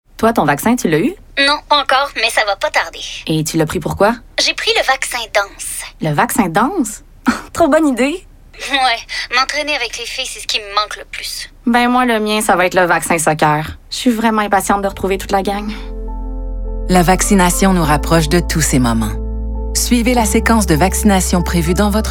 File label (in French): premiere voix feminine